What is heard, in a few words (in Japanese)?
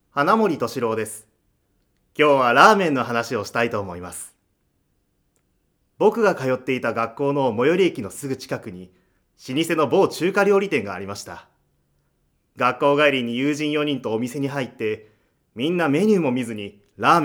フリートーク